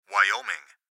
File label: Gps Voice, Male